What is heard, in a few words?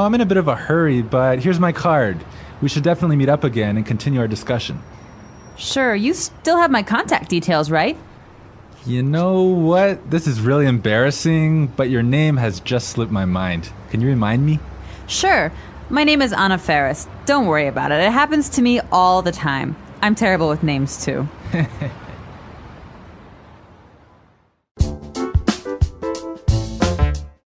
conversation